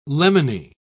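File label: "lemony", British English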